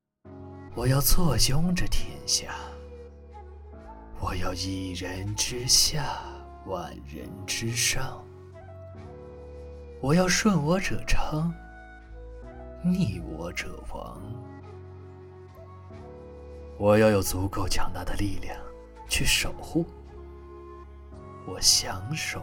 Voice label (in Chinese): 古风邪气